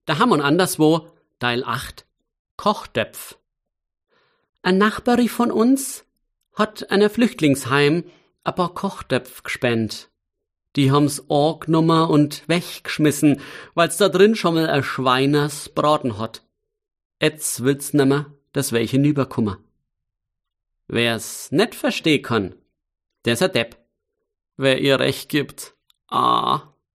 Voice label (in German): Mundart